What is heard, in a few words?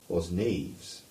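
"Bosneives", English; Boskenyuyde 1296; place names; Boskenyuyde 1296 (Gover, 1948)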